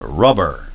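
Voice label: "rubber", English pronunciation; ˡrʌb.əʳ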